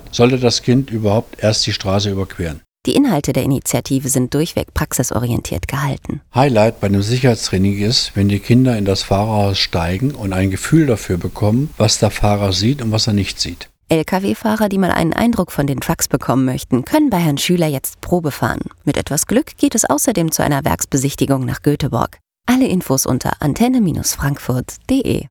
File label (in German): Infomercial Stoppen Schauen Winken; Antenne Frankfurt